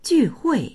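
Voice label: "ju4hui4", Chinese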